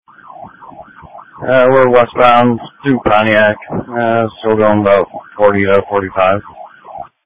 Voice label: Police Dispatch